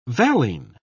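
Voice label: "valine", British English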